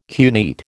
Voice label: "cuneate", American